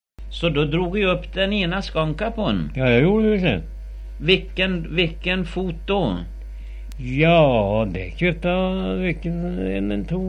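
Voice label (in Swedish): Gudhem Västergötland, dialekter; Folkminnen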